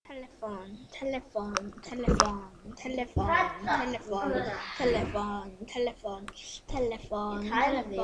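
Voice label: "telephone", spoken word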